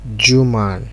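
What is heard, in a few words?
"jew man", Nigerian Pidgin, male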